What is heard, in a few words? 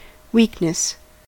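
"weakness", American English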